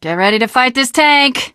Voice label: opening door, teengirl; video game; source engine; left4dead2; survivor